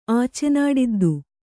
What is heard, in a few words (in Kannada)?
"ಆಚೆನಾಡದ್ದು", kannada